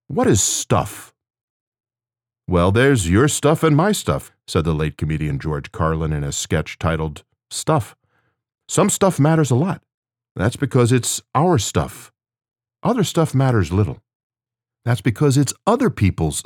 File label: Explainer